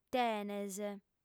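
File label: "tenes", female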